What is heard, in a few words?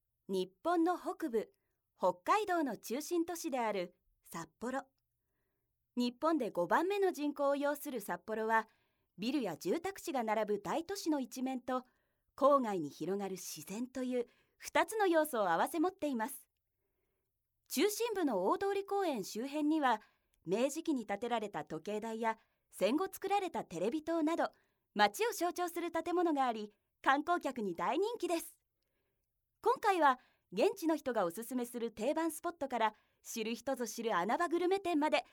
narration